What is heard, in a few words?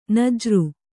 "ನಾಜರು", Kannada